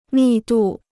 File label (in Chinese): "密度", Chinese